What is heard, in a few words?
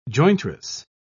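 "jointress", British English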